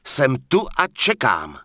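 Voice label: Human Male Standard NPC Vendor, Czech; wowpreklad; czwow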